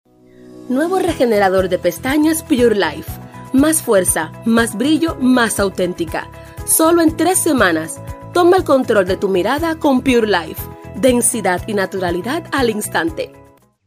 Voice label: 西班牙女声

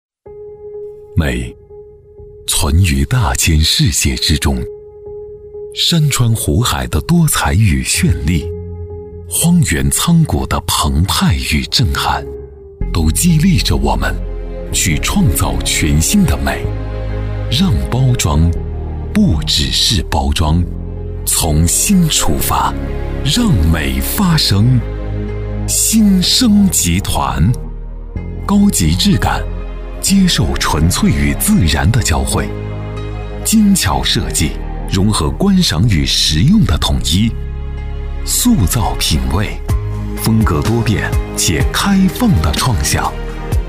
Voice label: 男 企业宣传 集团宣传片 品质浑厚; 集团企业宣传类型

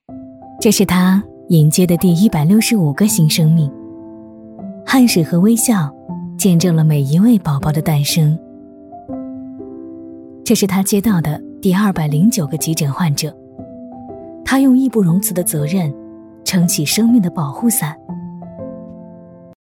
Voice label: 女, 专题, 护士节温馨; 大气质感; 成熟知性

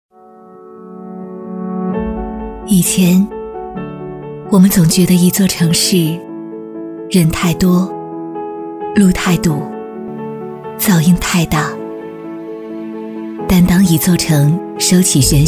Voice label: 女 公益短片 战疫 低沉大气; 漳浦战疫; 磁性甜美 沉稳大气

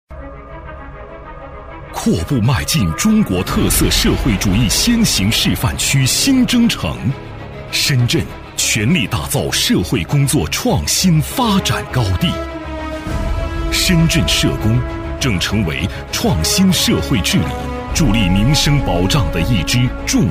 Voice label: 男 党建宣传 高端大气; 男190-高端磁性 高端大气; 深圳市社工协会

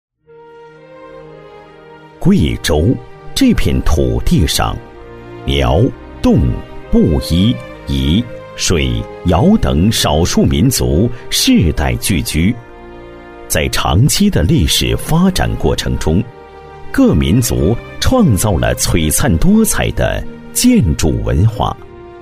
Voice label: 男 专题配音 舒缓大气; 厚重硬朗; 舒缓抒情

